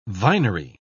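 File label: "vinery", English, British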